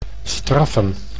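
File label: "straffen", Dutch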